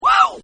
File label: Guy Yells WHAOO; Exclamations; Voice